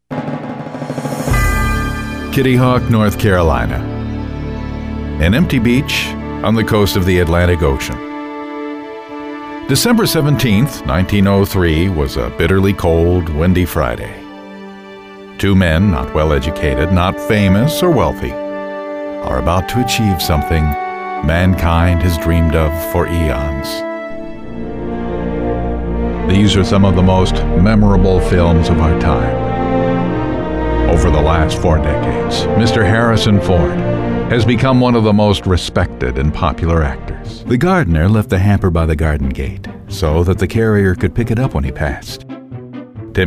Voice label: Documentary